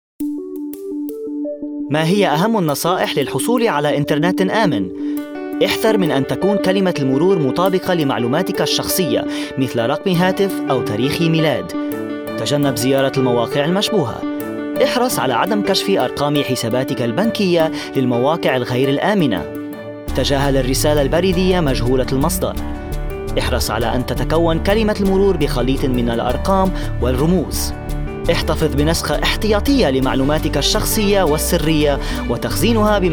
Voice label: male arabic and english usa classical standard arabic corporate